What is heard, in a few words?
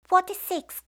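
"forty-six", English; eng suuji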